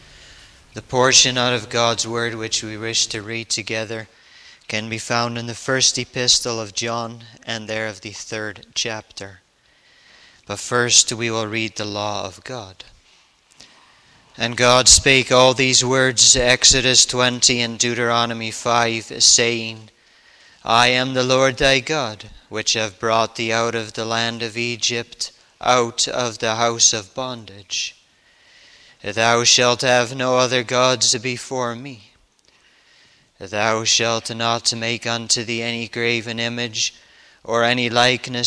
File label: Bible Reading; 1 john 3 1 3